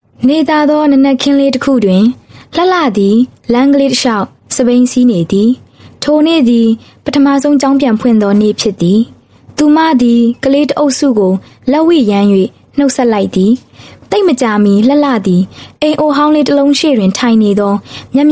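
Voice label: f Burmese Narration, female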